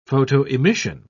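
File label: "photoemission", British English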